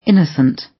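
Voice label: "innocent", British English; Crime and punishment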